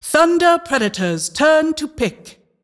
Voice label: announcer team pick thunder predator